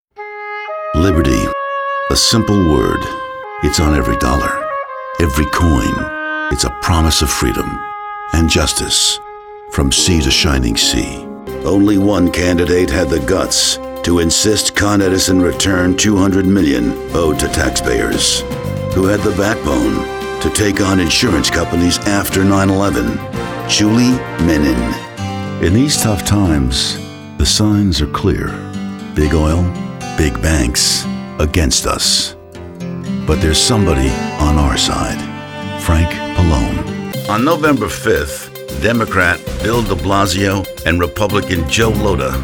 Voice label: Political, male voice talent